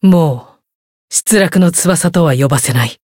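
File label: Voice chant, Japanese; clarte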